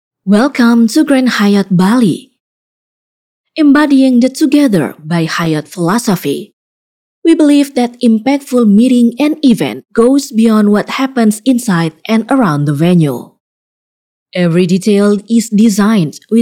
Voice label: formal English

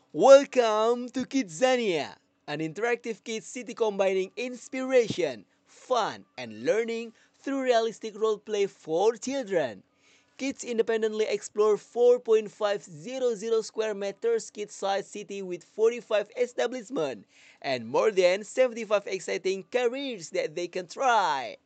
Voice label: CASUAL ENGLISH

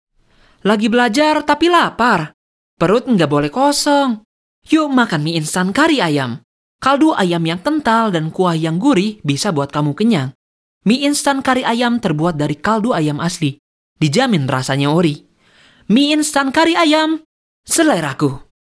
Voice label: CASUAL, pre-teen – teenagers fun character

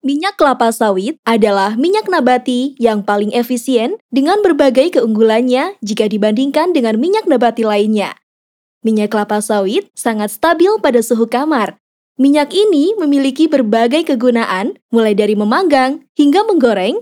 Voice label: FORMAL INDONESIA

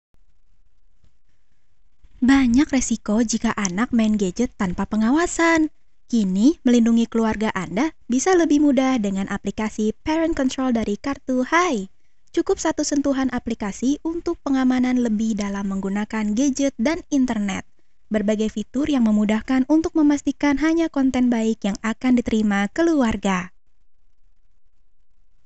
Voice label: Casual Indonesia

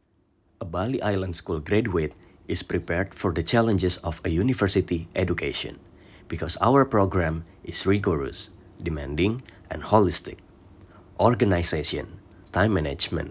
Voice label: FORMAL ENGLISH

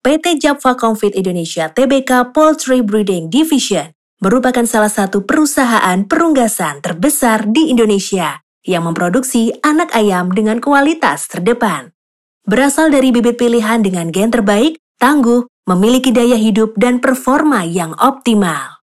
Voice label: FORMAL INDONESIA

